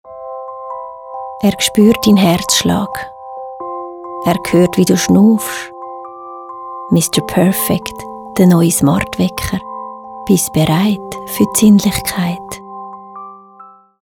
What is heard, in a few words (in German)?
Smartwecker, Sprecherin